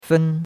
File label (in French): "fen1", chinois; Cours-de-Chinois